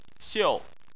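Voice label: "xiu", Chinese, fourth tone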